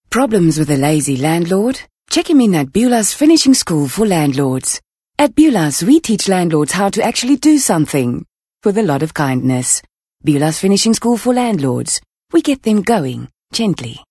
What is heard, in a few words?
English Soft Sell